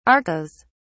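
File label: "arkose", British English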